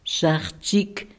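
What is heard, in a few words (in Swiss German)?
"sachzig", Alsatian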